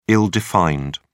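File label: "ill-defined", English, British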